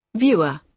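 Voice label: "viewer", British English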